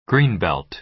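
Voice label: "green belt", American English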